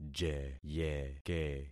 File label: "jeyeke", concat syllables; Affixing experiment